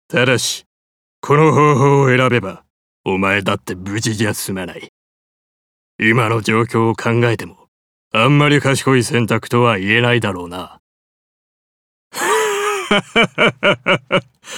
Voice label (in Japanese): ワイルド40代